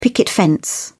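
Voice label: "picket fence", British English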